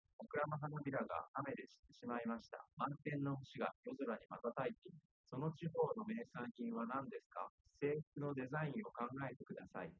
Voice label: male4 liverec 250ms 5cm sim; SiSEC08; SiSEC underdetermined

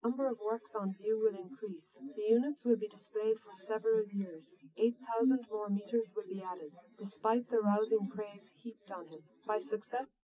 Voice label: female srec 130ms 20cm sim; SiSEC11; underdetermined

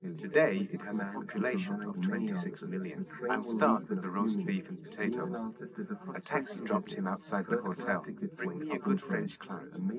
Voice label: male; SiSEC10; underdetermined

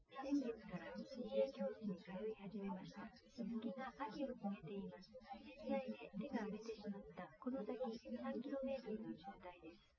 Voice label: female liverec; SiSEC08; SiSEC underdetermined

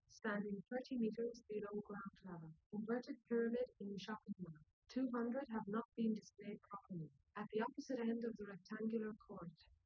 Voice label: female4 liverec; SiSEC08; SiSEC underdetermined

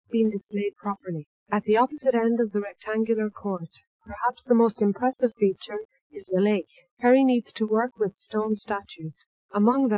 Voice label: female inst src; SiSEC11; underdetermined

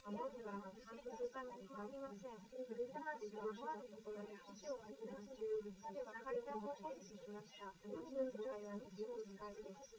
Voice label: female liverec; SiSEC11; underdetermined

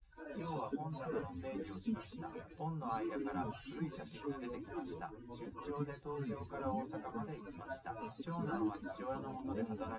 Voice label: test male liverec 250ms 1m src; SiSEC08; SiSEC underdetermined

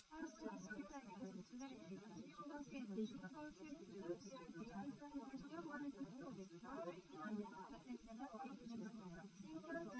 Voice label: female liverec; SiSEC11; underdetermined

